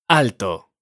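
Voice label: "alto", Spanish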